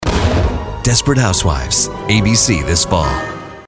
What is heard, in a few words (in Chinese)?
外籍男 电视广告; Desperate Housewives